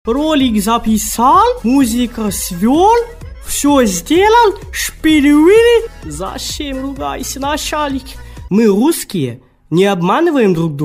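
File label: parody Russian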